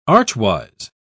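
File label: "archwise", English, British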